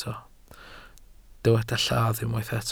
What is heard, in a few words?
"lladd", welsh